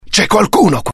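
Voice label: curious, Italian; mohaa; dialogue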